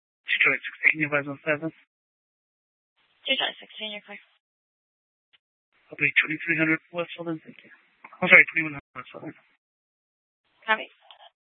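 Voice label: police dispatch, scanner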